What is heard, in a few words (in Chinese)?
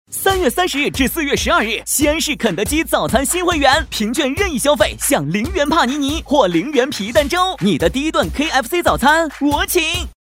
男-广告-时尚, 全能型 激情力度; 肯德基